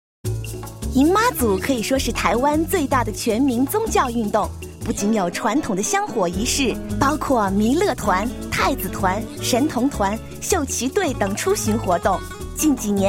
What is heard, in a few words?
Tai Wan Promotion